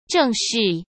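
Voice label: "正视", Mandarin Chinese; hsk; chinese-flashcards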